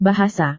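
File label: "bahasa", Indonesian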